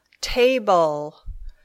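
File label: "table", English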